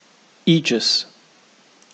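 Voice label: "aegis", British English; pronunciations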